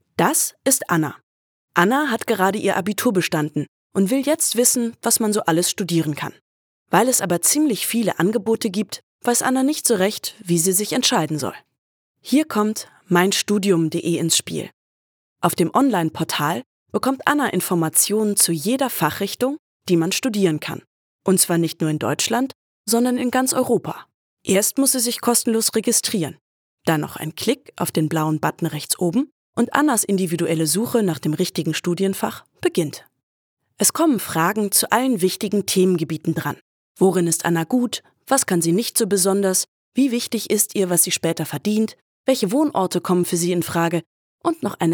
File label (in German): Erklaerfilm studium